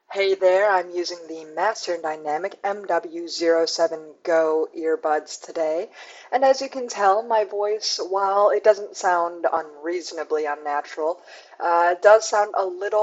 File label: Master Dynamic MW07 Go microphone